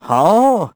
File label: vo confused; Crypt of the NecroDancer